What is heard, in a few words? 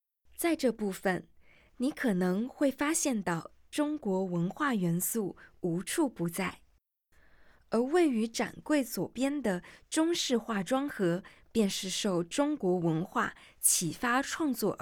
Mandarin, audio guide